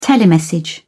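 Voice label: "telemessage", British English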